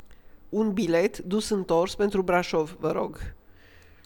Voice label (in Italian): treno, rumeno; frasi utili